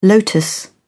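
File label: "lotus", British English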